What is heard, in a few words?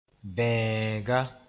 "bẽega", Mooré